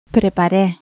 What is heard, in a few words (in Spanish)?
acento lexical "preparé", Cuba, Pinar del Río femenina; spansk; fonetica